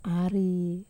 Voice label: "ari ii", Wamesa

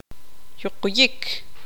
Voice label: tzutujil; largo

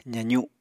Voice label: "zazu", Apatani

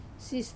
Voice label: "sis", cloth, wamesa

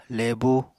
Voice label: "lebu", Apatani

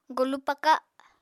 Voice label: "golu paka", Apatani

